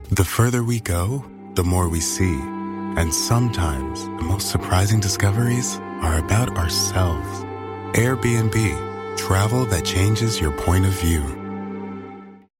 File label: Streaming Ad thoughtful ethereal male natural real commercial; Thoughtful, Inspirational, Discovery; AirBnb